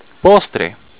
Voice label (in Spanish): "postre", spanish